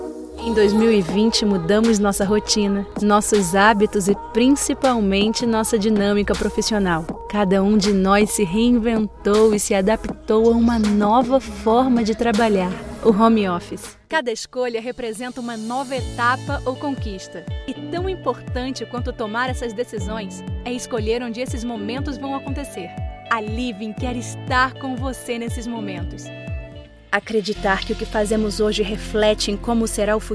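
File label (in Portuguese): Português - Brasileiro, Reel Locução, Voz Padrão - Grave, Feminino; Reel Locução 2021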